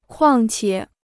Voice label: "况且", Chinese